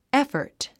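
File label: "effort", English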